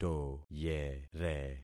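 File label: "toyere", concatenated syllables; Affixing experiment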